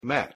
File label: "mat", English; the home